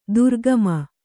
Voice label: "ದ್ರಾಘಿಮ", Kannada

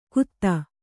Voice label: "ಖುದಾ", kannada

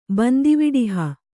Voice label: "ಬಂದಿವಿಡಿಹ", Kannada

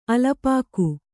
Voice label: "ಅಲ್ಪಾಕ", Kannada

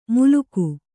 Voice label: "ಮೂಲುಗು", Kannada

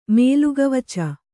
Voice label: "ಮೇಲುಗವಚ", kannada

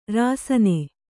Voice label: "ರಾಸನಾ", Kannada

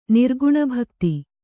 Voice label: "ನಿರ್ಗುಣಭಕ್ತಿ", kannada

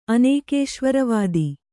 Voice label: "ಅನೇಕೇಶ್ವರವಾದಿ", Kannada

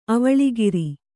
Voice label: "ಅವಳಿಗಿರಿ", kannada

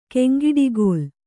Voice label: "ಕೆಂಗಿಡಿಗೋಲ್", Kannada

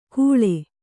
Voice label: "ಖೂಳೆ", Kannada

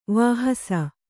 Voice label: "ವಹಿಸು", kannada

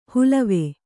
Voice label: "ಹುಲವೆ", Kannada

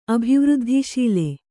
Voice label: "ಅಭಿವೃದ್ಧಿಶೀಲೆ", Kannada; abhivřddhiśile; noun

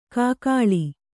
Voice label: "ಕೂಗಳಿ", Kannada

